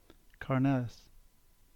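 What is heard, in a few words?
English; Carnellis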